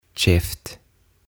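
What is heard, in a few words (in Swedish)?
"käft", Swedish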